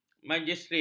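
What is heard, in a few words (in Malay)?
"majistret", Malay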